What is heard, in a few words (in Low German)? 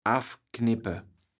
"affknippe", kaevels platt